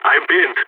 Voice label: pinned down, balkan; vo